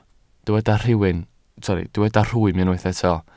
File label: "rhwym", Welsh